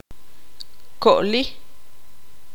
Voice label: tzutujil; corto